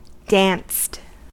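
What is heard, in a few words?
"danced", US English; pronunciations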